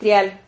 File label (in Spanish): "trial", Silabas